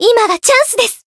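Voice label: Voice limit break, Japanese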